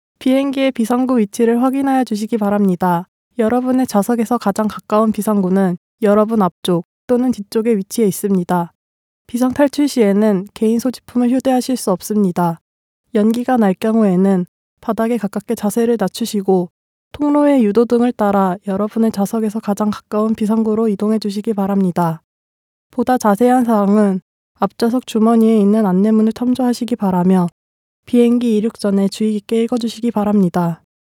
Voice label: Korece Kadin, seslendirme; kadin sesler

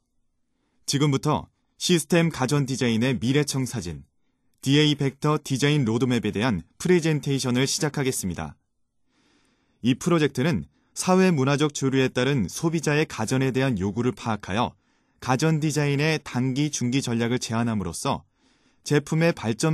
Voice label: Korece Erkek; seslendirme; erkek sesler

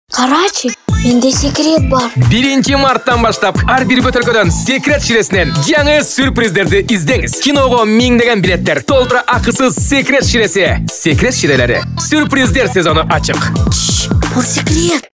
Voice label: Kirgizca Erkek; seslendirme

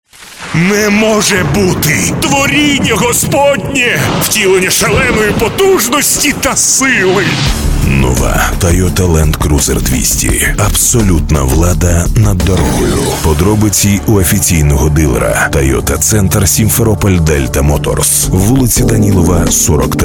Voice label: Ukraynaca Erkek, ukraynaca seslendirme; erkek sesler

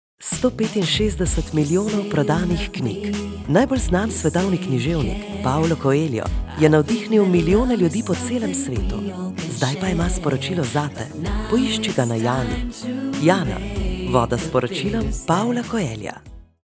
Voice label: Slovence Kadin, slovence seslendirme; kadin sesler

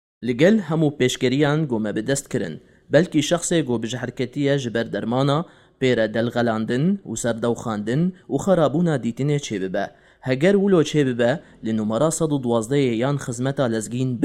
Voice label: Kurtce Erkek Kurmanci, seslendirme; erkek sesler

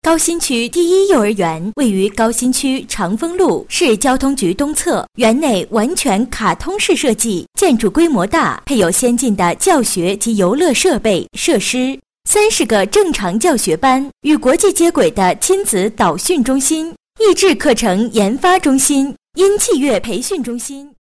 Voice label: Cince Kadin Mandarin Cin Simplified, seslendirme; pekin

